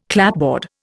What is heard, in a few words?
"clapboard", Indian